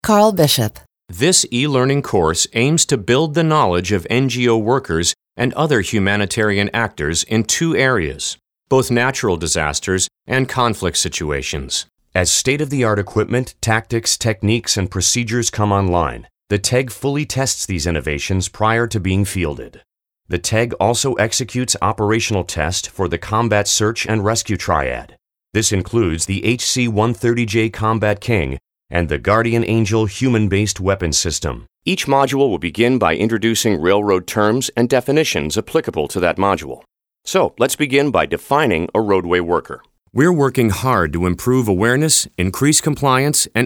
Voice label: elearning